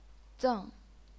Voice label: "zeng4", chinese pinyin